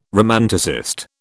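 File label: "romanticist", British English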